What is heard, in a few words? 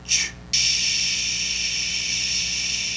CH, phones